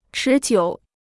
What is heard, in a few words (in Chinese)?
"持久", Chinese